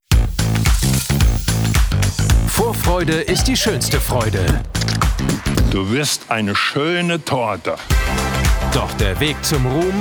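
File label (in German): Trailer, Sprecher, Männer; Sat1 Trailer; DGPB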